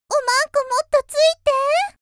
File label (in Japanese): 少女, 元気な少女; オマンコもっと突いてぇ